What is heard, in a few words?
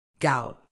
"gout", medical English